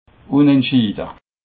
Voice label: "unendschiede", alsace

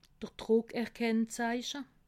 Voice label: "druckerkennseiche", alsace

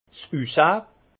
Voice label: "ussahn", alsace; vin; aspect

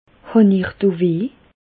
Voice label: do weh, Bas Rhin; medecine; avez vous mal là

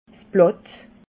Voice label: "blatt", alsace

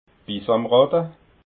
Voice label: "bisamratta", alsace

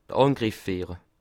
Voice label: "angriff", Alsace

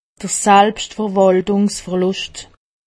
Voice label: "selbstverwaltungsverlust", alsace; medecine

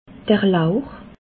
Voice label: "lauch", alsace

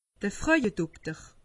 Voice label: "frauedokter", alsace; medecine

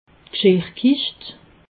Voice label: "gschirrkischt", alsace

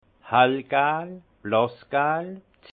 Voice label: "hell gal", alsace; jaune pâle; vin

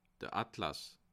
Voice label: "atlas", alsace

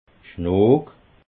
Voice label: "schnok", alsace

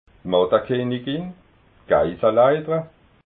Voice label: "mattekenigin", alsace

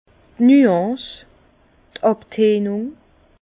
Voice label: "nuance", Bas Rhin

